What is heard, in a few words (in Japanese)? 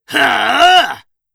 "はあああっ！！", 戦闘ボイス 青年 中音